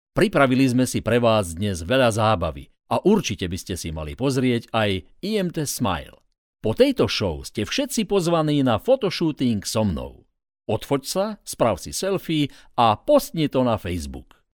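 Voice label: Slovak, male, elearning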